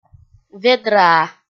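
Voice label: "ведраа", Komi, female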